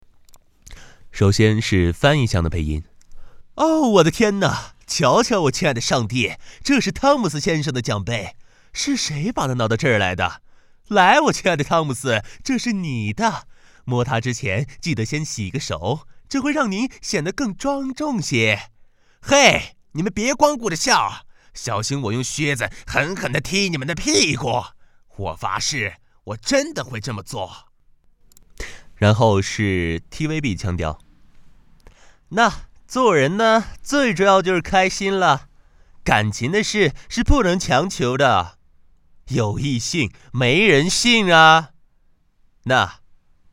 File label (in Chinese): 特殊模仿配音